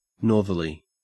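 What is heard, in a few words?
"northerly", Australian English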